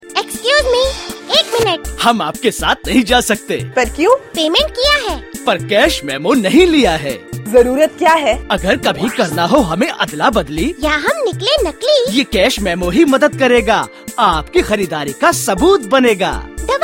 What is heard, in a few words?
Cash Memo Radio Spot